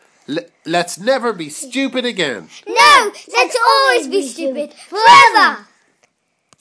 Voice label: call and response